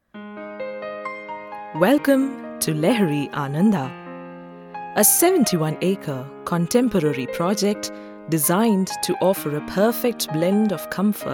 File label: corporate, English